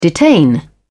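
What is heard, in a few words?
"detain", British English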